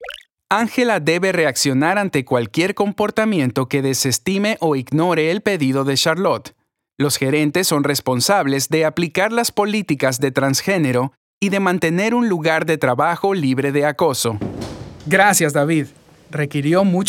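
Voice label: e-learning, Ecuadorian Spanish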